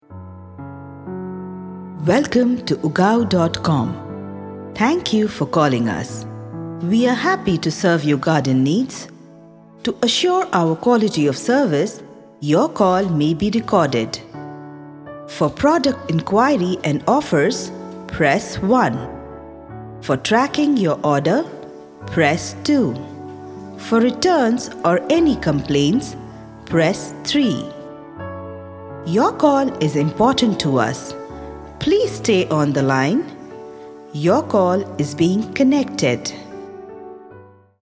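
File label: ivr english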